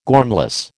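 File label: "gormless", American English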